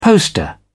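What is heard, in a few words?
"poster", British English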